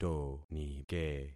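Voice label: "tonike", concatenated syllables; Affixing experiment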